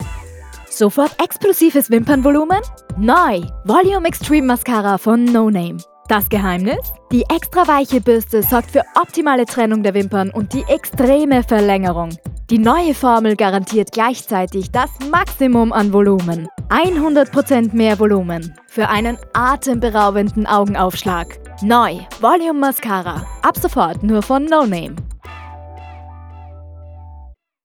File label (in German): Werbung Mascara